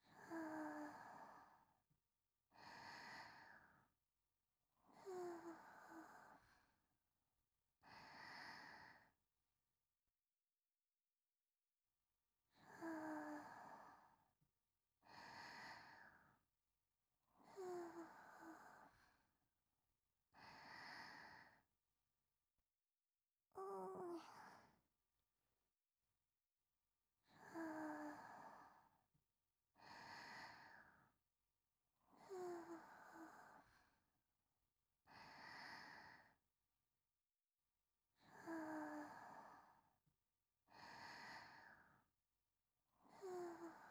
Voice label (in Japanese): 添い寝のみ